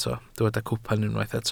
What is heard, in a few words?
"cwpan", Welsh